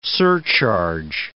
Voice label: "surcharge", English word